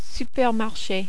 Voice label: "supermarche", French